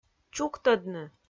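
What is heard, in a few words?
"чуктӧдны", Komi, female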